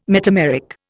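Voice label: "metameric", English, Indian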